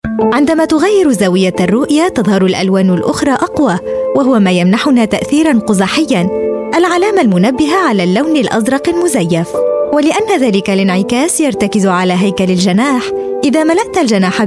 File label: arabic voice over female narrator